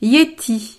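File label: "yeti", French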